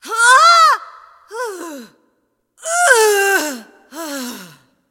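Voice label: cinematic voice, Spanish; lineage2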